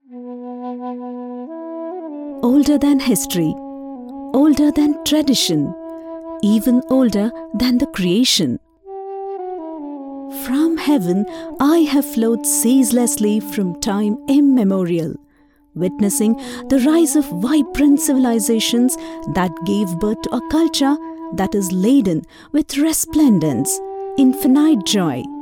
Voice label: Documentary Eng; Ganga